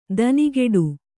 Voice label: "ದನಿಗೆಡು", Kannada

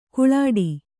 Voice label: "ಗೋಳಿಡು", Kannada

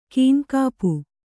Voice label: "ಕೈನೆಗಪು", Kannada

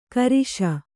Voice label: "ಕಾರೀಷ", Kannada

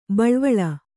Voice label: "ಬಳ್ವಳ", Kannada

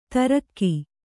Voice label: "ತರ್ಗಿ", Kannada

